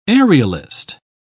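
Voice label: "aerialist", British English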